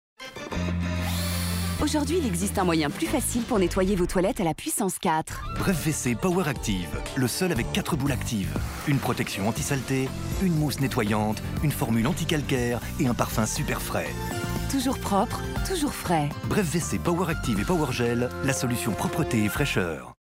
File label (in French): energique promo; Bref WC